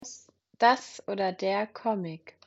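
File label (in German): "Comic", German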